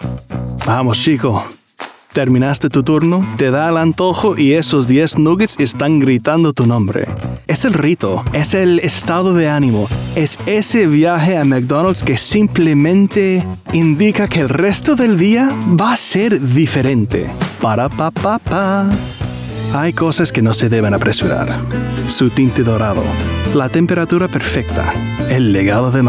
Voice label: Commercial Spanish